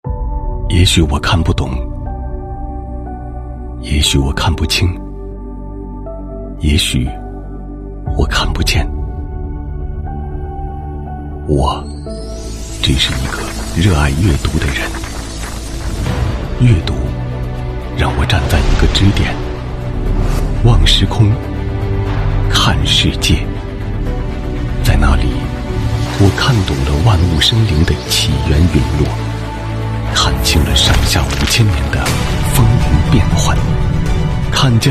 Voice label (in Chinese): 男 世界读书日; 新华网